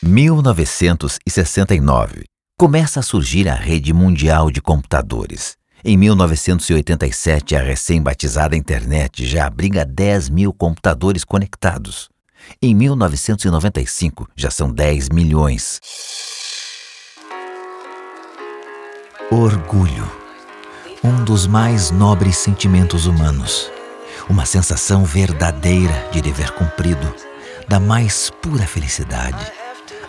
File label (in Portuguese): locucao institucional